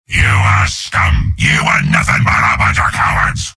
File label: soldier taunts; tf2; garrysmod; mvm